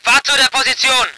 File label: voice ger vehicle